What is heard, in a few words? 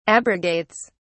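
"abrogates", English, British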